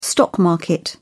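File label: "stock market", English, British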